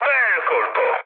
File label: player killed enemy mood med, speech it; War Thunder